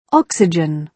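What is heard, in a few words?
"oxygen", British English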